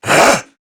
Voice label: Threatened, Male